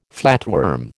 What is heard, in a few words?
"flatworm", American